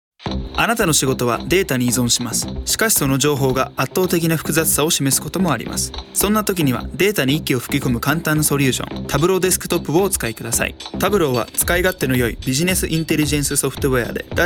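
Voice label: Japanese narration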